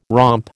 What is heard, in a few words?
"romp", American